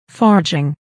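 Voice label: "forging", English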